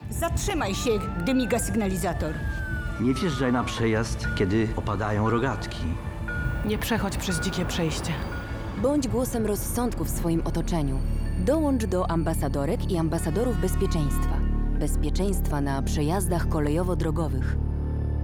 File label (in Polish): radio aktorzy